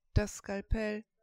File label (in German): skalpell, german